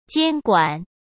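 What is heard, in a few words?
"监管", Mandarin Chinese; chinese flashcards; hsk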